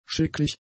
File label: "schicklich", German; adjectives